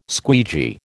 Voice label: squeegee, American